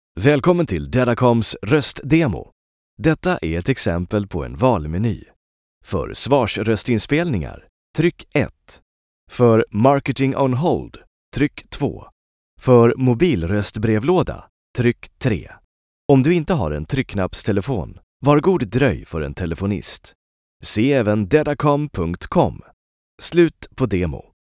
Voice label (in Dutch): Voice over Zweeds man